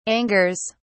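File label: "angers", British English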